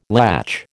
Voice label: "latch", American